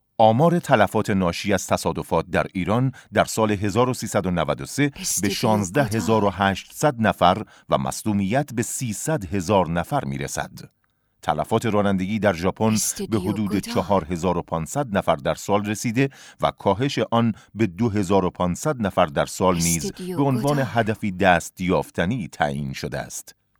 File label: narration